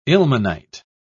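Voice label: "ilmenite", British English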